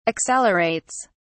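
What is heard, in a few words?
"accelerates", English, British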